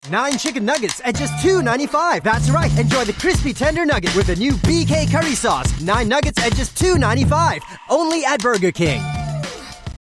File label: Asian English Asian English; BK Starbuys